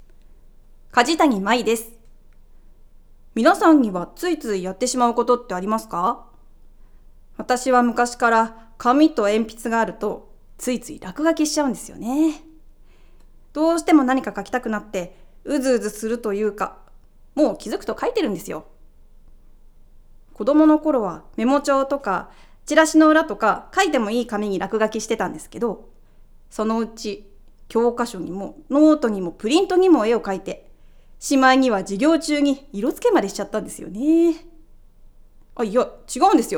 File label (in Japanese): フリートーク